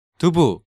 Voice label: "Dubu", Hangeul sounds; DigitalSoju